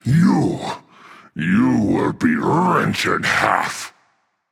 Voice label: taunt, voice; smite; voicepack; tyr